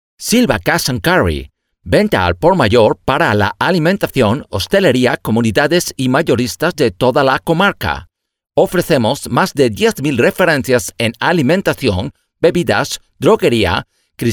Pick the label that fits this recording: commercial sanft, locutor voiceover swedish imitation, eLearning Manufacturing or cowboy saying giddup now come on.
locutor voiceover swedish imitation